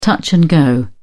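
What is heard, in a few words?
"touch and go", English, British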